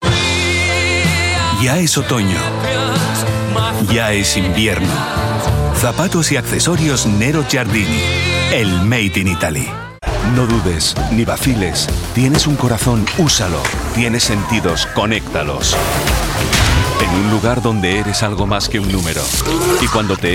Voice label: spanish narration

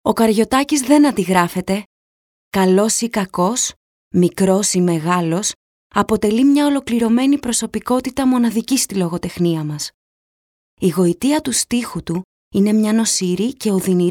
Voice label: greek commercial

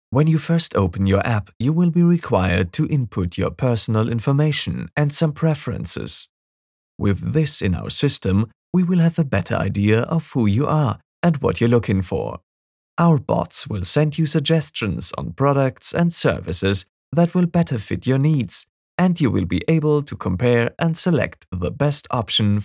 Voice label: english e-learning

